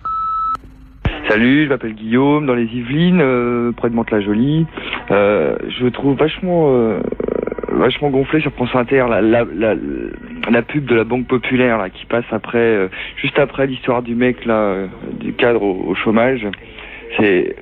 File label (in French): Pub répondeur; France Inter